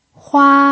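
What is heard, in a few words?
"hua" first tone, pinyin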